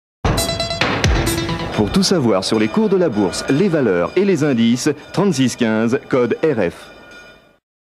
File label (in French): PROMO BOURSE; France Inter